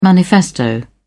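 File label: "manifesto", British English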